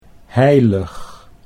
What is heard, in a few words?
"heilig", Dutch